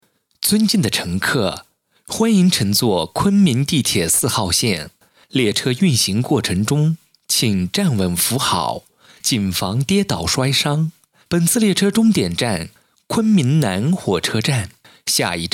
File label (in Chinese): 中英文